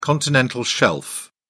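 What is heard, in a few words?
"continental shelf", British English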